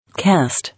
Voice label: "Cast", English